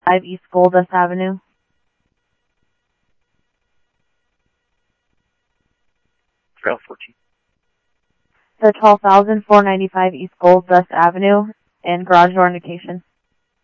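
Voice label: police department, scanner audio trunk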